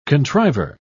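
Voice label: "contriver", British English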